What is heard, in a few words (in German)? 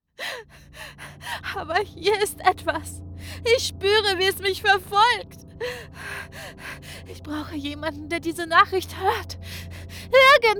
Angst; Sam Lake